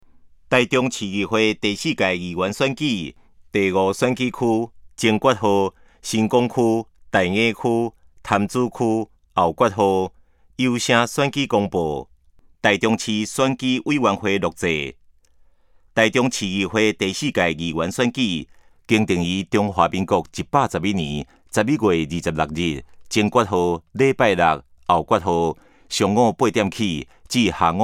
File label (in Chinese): 前言, 台語; 臺中市; 有聲公報; 市議員; 第05選區